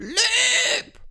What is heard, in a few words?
"RUNAWAY", Danish; Worms Armageddon; Danish-Pyrus